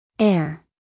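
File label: "air", American English, female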